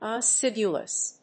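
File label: "acidulous", English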